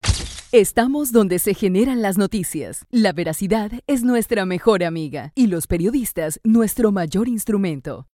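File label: Latin American Spanish, Commercial; GoLocalise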